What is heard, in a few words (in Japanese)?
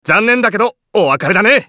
男 エグゼキューションシューター; オーシュマスケッティア